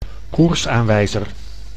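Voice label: "koersaanwijzer", Dutch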